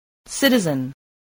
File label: "citizen", English, voice